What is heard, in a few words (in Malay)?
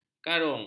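"karung", Malay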